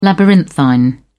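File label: "labyrinthine", British English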